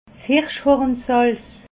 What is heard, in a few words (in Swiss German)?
"hirschhornsalz", alsace